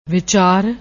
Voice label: "vichar", Punjabi; ਵਿਚਾਰ

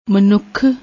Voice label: "manookh", Punjabi

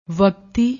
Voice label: "waqti", Punjabi; ਵਕਤੀ

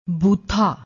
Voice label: "boothaa", Punjabi

